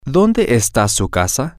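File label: Spanish, where is her house, male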